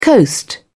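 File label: "coast", British English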